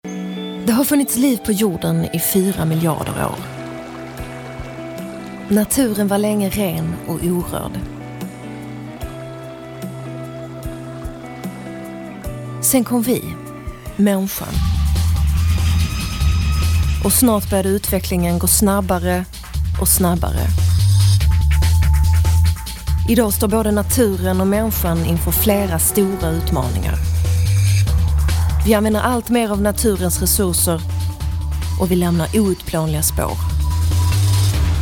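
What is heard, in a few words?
swedish female commercial; voice demos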